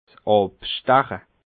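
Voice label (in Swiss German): "absteche", Alsatian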